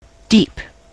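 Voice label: "deep", US English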